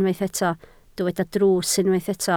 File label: "drws", Welsh